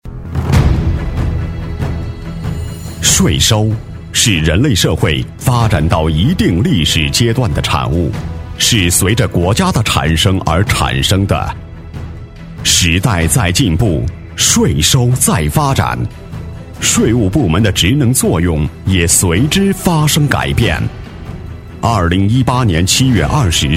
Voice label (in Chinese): 专题 政府 税务局 稳重, 男; 缙云县税务局